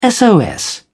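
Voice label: "sos", British English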